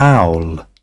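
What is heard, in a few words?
"owl", British English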